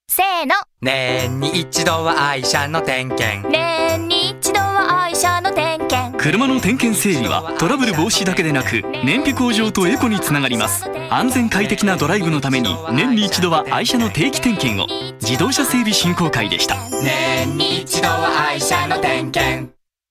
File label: radio cm